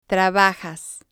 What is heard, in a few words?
"trabajas", Spanish